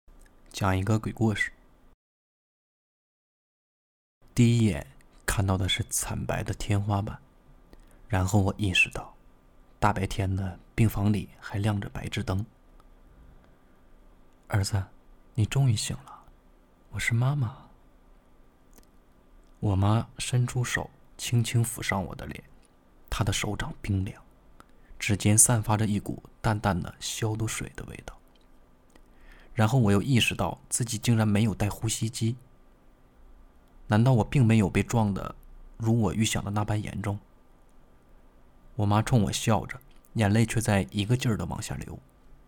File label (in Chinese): 干音 练习